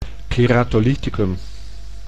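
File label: "keratolyticum", Dutch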